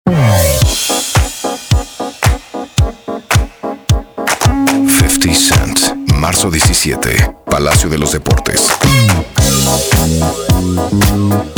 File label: Promo, locucion